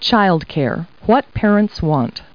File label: people, kid